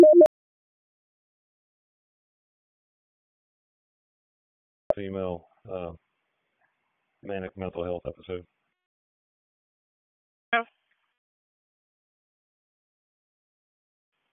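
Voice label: Raleigh Police Northeast District Dispatch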